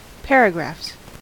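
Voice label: "paragraphs", US English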